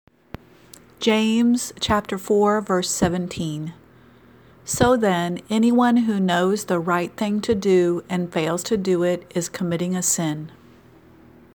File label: Childrens Bible Verse; James 4 17